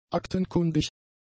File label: "aktenkundig", german; adjectives